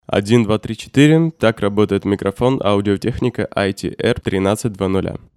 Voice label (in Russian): Audio-Technica ATR-1300 rech, Речь; Звучание Audio-Technica ATR-1300 — Речь; динамических кардиоидных микрофонона; Рассмотрим 4 бюджетных динамических кардиоидных микрофонона от Audio-Technica, линейки ATR